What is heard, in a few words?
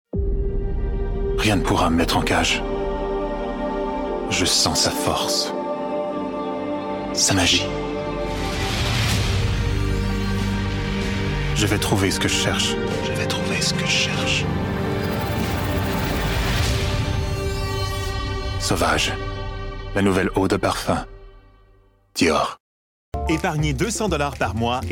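Canadian French, Commercial; voice demos; GoLocalise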